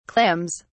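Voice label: "clams", British English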